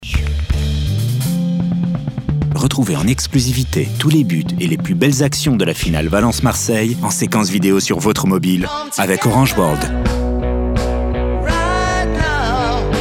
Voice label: billboard

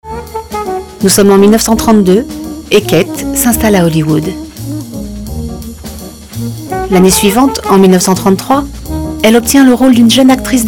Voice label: narration; hepburn

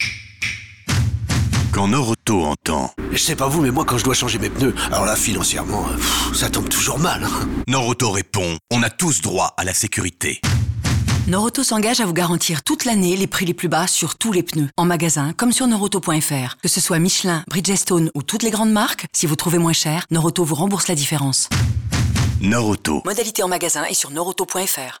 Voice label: Radio; Norauto

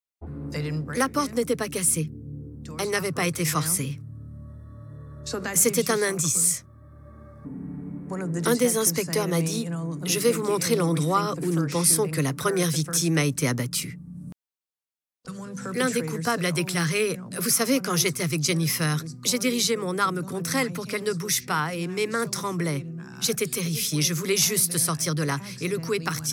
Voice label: voice over; homicide new york

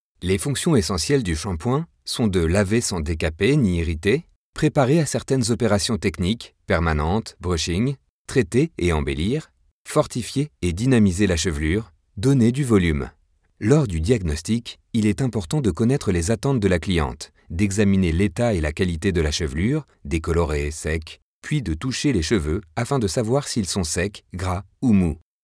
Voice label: Elearning

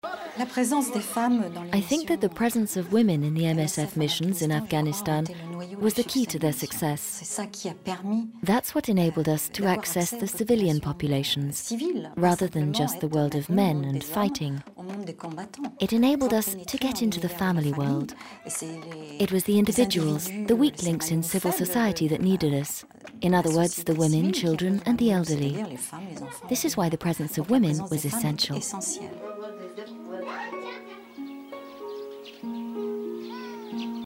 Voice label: voice over; MEDECINS SANS FRONTIERS

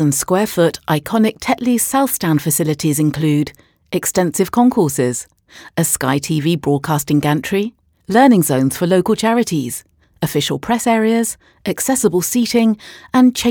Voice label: stadium corporate; headingly stadium

